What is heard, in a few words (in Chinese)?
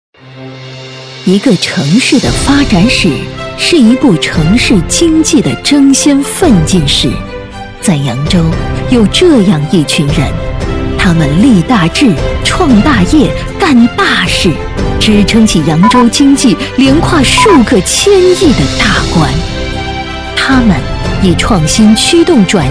女 专题 大气颂扬; 致敬扬州企业家